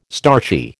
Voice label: "starchy", American